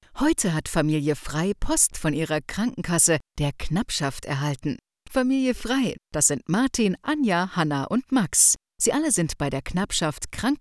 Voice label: Corporate

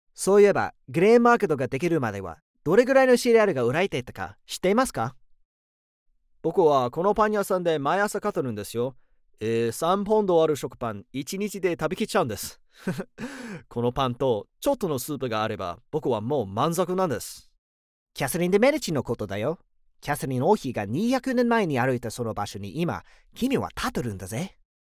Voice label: Japanese Voice Sample

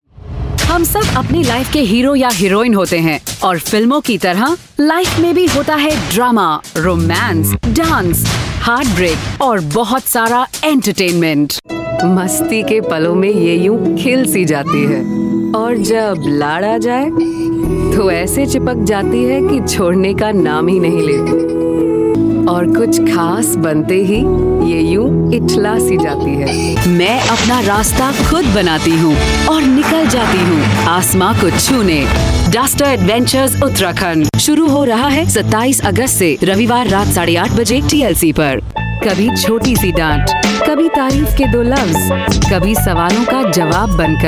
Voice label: Hindi Upbeat

